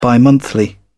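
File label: "bimonthly", English, uk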